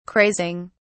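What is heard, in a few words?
"crazing", English, British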